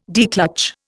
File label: "declutch", Indian